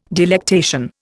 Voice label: "delectation", Indian